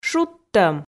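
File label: "шудтӧм", Komi, female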